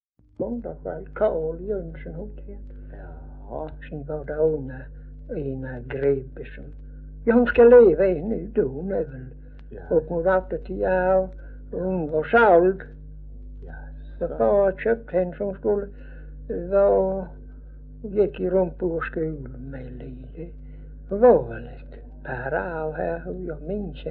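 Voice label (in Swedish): Örkened Skåne, dialekter; Folkminnen; Svenska sagor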